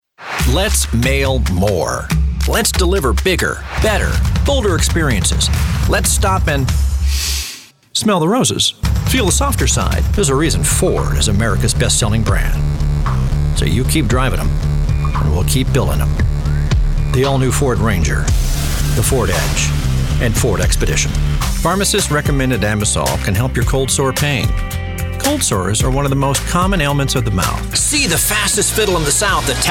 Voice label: Voice Over; Burger